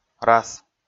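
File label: "рас", Komi, male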